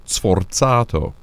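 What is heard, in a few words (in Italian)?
"sforzato", Italian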